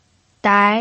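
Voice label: "dai" second tone, Mandarin Chinese pinyin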